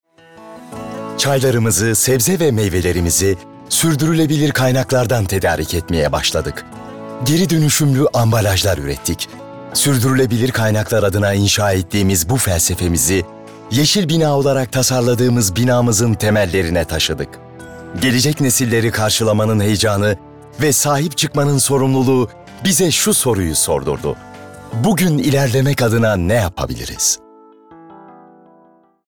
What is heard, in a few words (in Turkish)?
Tanitim